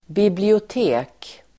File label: "bibliotek", Swedish